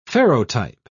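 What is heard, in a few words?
"ferrotype", British English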